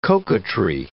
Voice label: "coquetry", English

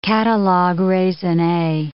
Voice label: "catalogue raisonne", English

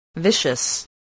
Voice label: "vicious", English

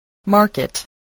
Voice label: "market", spelling voice

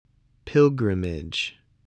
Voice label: "pilgrimage", voice, English

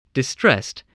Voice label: "distressed", spelling

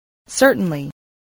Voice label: "certainly", English, voice

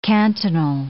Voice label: "cantonal", English

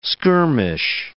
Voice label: "skirmish", words

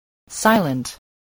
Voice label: "silent", spelling

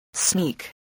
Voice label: "sneak", English voice

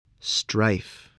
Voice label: "strife", spelling

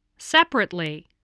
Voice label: "separately", english spelling

